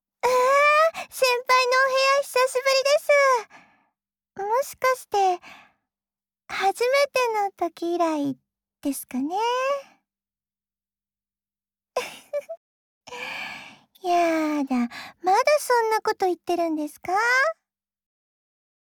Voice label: LOVE Whisper